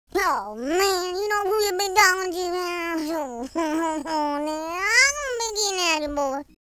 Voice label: angry, Gangsta; speech